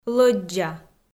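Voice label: "лӧддза", Komi, female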